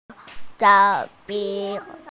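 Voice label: "pencil", Mandarin Chinese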